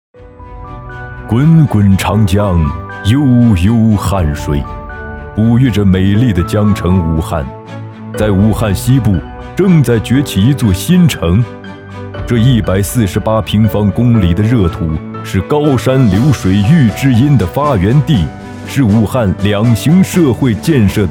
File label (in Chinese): 宣传片大气恢弘城市介绍宏伟大气动感激情